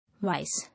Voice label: "Wise", English